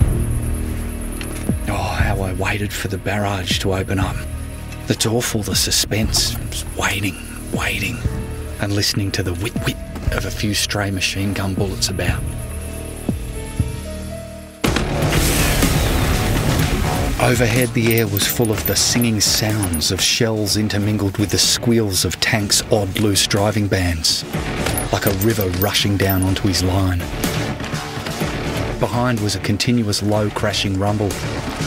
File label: voice acting